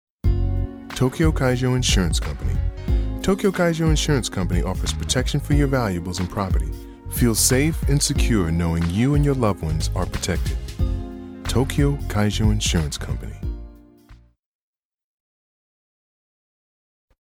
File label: usa man